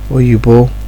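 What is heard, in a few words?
"oyibo", Nigerian Pidgin, male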